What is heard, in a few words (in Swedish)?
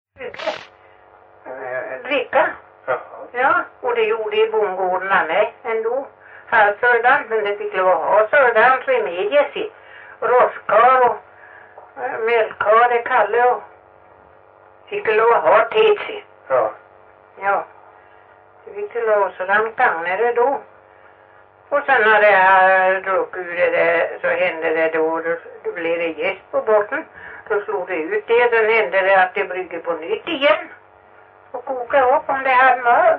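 Stenåsa Öland, Svenska sagor dialekter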